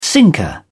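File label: "sinker", British English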